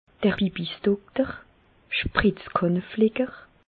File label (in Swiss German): bibisdokter, Bas Rhin; medecine; urologue